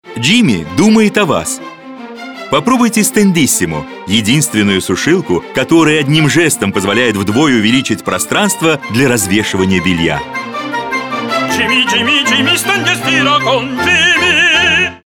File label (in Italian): Lingua russa Radio; GIMI Stendissimo